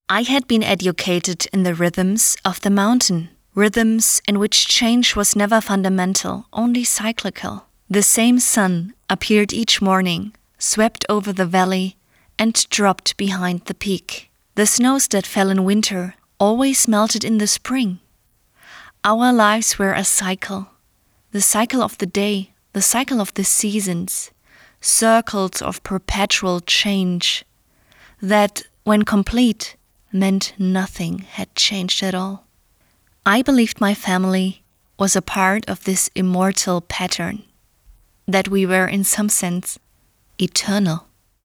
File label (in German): Hoerbuch englisch